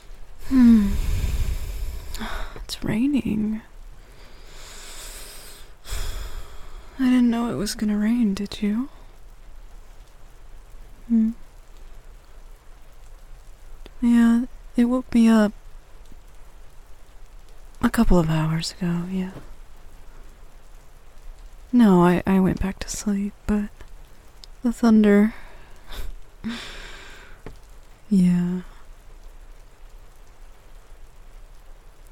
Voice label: f4m possessive tomboy girlfriend spoils her good boy whispers cuddling praise; rain asmr rp